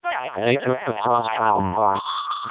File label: dam siren melp, speechsamples